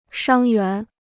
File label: "伤员", Chinese